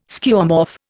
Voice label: "Skeuomorph", Indian